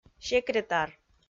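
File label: "секретар", Komi, female; kpv audio dict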